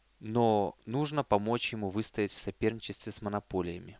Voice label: russian; GlobalPhone